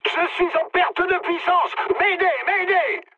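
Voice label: damaged engine, mood high, French; War Thunder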